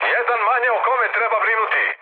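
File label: Serbian player killed enemy mood med, speech; War Thunder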